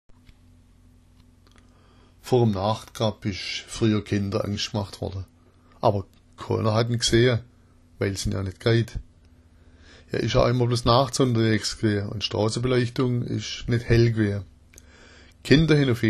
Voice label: "nachtkrab", schwaebisch

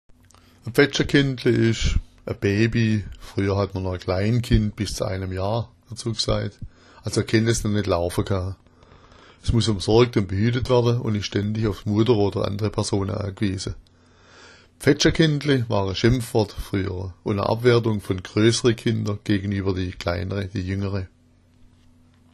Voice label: pfetschakendle, schwaebisch

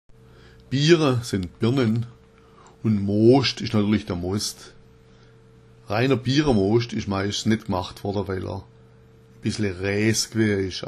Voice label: biramoscht, schwaebisch

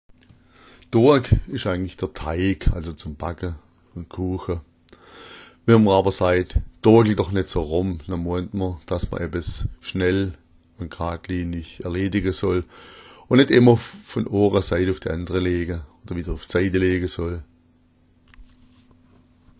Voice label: doag, schwaebisch

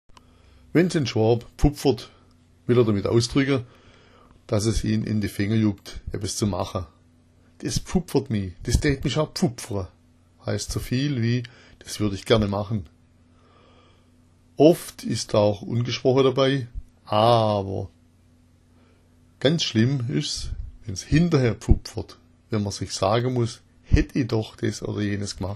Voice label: "pfupfera", schwaebisch

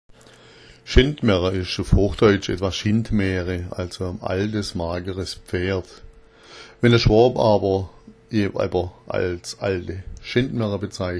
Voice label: schendmaehre, schwaebisch

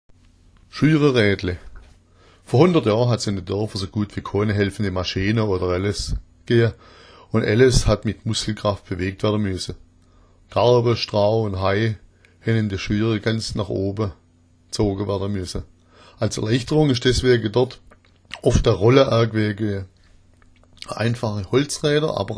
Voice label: schuiraraedle, schwaebisch

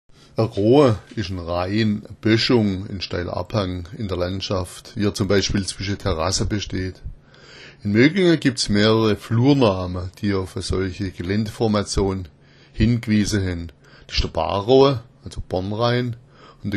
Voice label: "roa", schwaebisch

